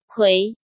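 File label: "Kuì", Chinese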